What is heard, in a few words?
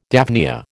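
"daphnia", American English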